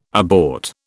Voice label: "abort", British